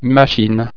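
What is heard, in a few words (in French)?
"machine", French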